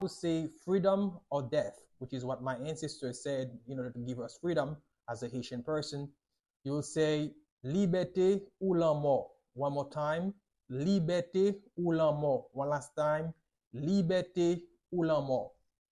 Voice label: Freedom or Death in Haitian Creole Libete ou lanmo with pronunciation